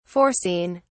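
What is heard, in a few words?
"foreseen", British English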